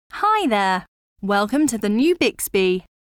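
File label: onboarding provision greeting, British English; Bixby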